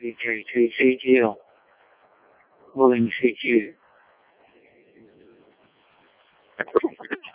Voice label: decoded speech, parrot